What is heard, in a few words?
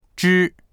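"zhi1", Mandarin Chinese; 只; …匹（小動物、昆虫、ペアの片割れ）; dictionary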